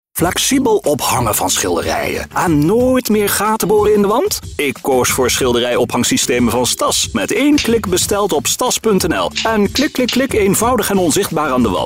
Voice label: medium sell dandy style